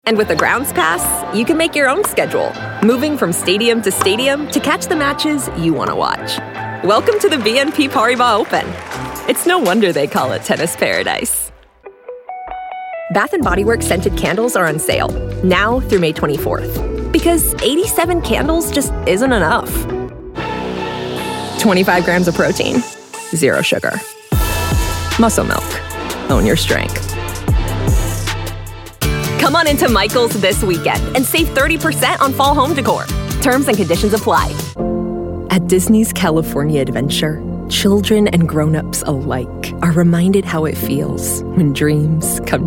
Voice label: Commercial